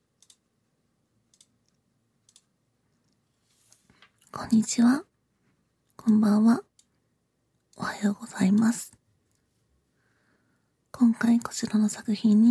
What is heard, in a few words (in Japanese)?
自己紹介, ASMR